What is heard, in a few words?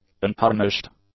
"untarnished", english; adjectives